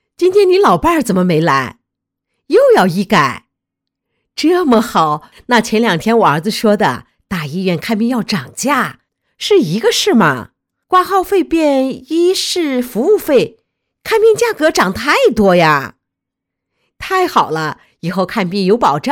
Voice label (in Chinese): 女 模仿大妈, 老年音